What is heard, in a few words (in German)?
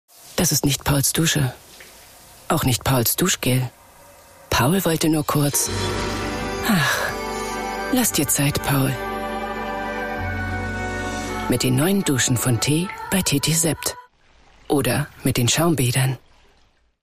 Duschen TV Spot; tetesept